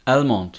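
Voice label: "almond", Turkish, male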